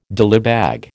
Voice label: "dillybag", American